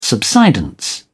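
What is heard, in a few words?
"subsidence", British English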